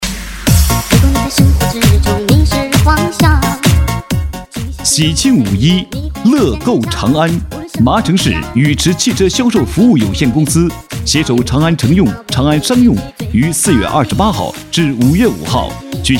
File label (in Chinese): 男 促销 汽车; 五一